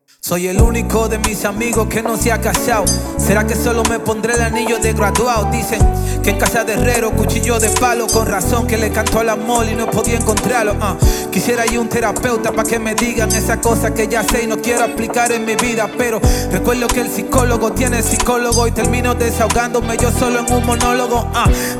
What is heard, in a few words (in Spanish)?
Rap (En Vivo)